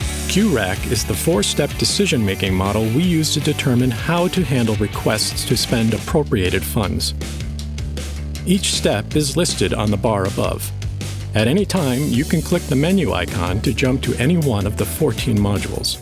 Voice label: eLearning government agency training factual straightforward